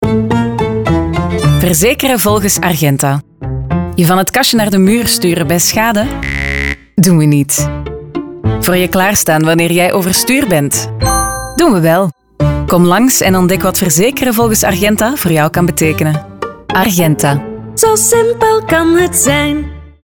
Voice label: Radio; Argenta Verzekeren